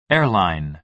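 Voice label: "airline", American English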